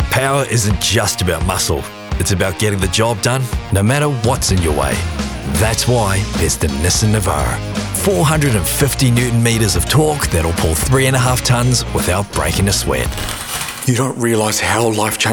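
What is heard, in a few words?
AUS Commercial, Voice Over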